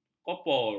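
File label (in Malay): "kopor", Malay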